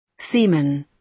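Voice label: "seaman", British English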